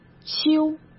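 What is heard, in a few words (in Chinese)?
"修", Mandarin Chinese; chinese flashcards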